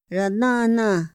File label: "ra nänä", Otomí; Días de la semana; INPI; INALI